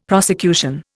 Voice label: "prosecution", Indian; Dictionary

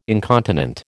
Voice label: "incontinent", American

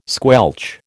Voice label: "squelch", American

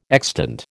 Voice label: "extant", American

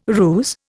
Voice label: "ruse", Indian

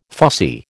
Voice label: "fussy", American

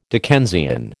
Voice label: "Dickensian", American

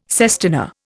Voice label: "sestina", Indian

